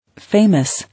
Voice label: "Famous", English